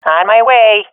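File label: on my way, swat female; agents; Counter Strike Model Pack; CS2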